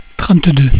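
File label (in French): "trente deux", French; cat numeration doigts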